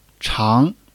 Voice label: "常", Chinese